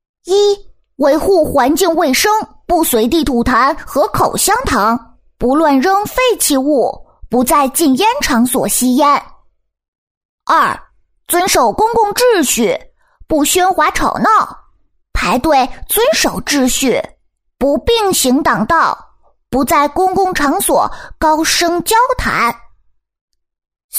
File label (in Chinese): 男童; 维护环境